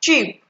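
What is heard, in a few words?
"cheap", Nigerian Pidgin, female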